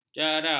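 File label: "jara", Malay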